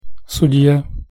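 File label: "sudja", Russian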